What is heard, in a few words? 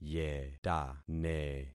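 "yetane", concatenated syllables; Affixing experiment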